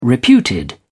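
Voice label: "reputed", British English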